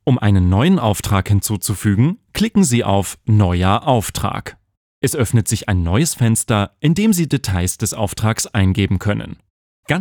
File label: Elearning Software